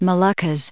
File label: "Moluccas", us